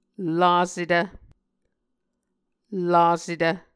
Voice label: "lasetv", Muscogee

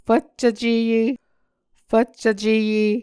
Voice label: "fvccvceye", muscogee; Mvskoke Words with Repeated Consonants; Onvkv Ohhvyayices; A Dictionary of Creek/Muskogee; SIL International

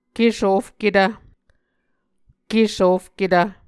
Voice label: "kesofketv", Muscogee

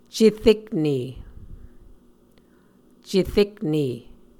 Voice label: "cerekne", muscogee

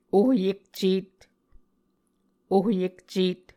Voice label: "ohyekcEt", Muscogee

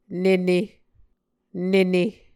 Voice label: "nene", Muscogee

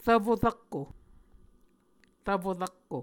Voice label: "rvfo rakko", Muscogee; Mvskoke Words with Repeated Consonants; Onvkv Ohhvyayices; A Dictionary of Creek/Muskogee; SIL International

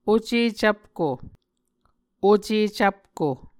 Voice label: "oce cvpko", Muscogee

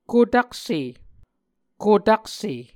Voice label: "kotakse", Muscogee

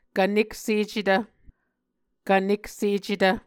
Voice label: "kvneksecetv", Muscogee

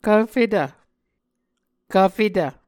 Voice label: "kvfetv", Muscogee

